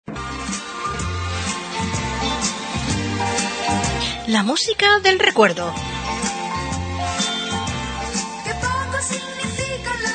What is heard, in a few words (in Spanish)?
Indicativo Radio Television; Radio Television Insular